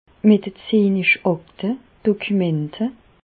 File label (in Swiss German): dokumente, Bas Rhin; medecine; dossier médical